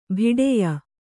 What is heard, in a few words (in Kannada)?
"ಬಿಡೆಯ", Kannada